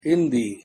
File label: pronunciation hi hindi